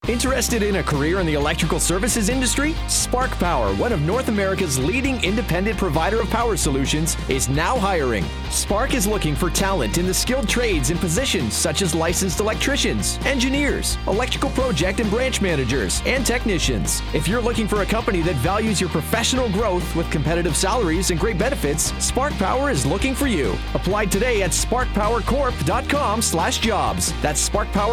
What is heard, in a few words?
Radio Ad